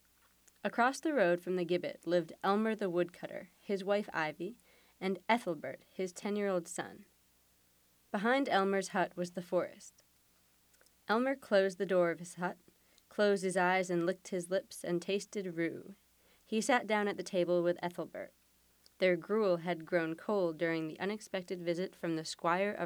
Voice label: SM62 Vocal